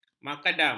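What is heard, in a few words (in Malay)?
"makadam", Malay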